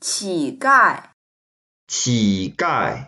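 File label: "qi3gai4", Mandarin Chinese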